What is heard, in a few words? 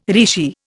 "rishi", Indian